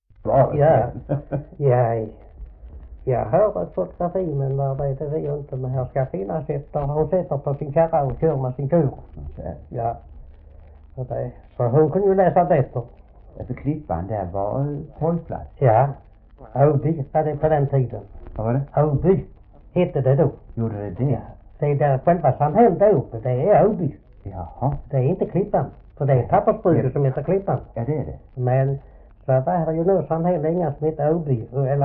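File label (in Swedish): Oderljunga Skåne, Svenska sagor dialekter; Folkminnen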